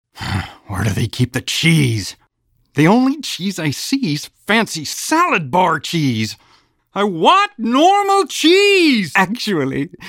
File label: Voice Character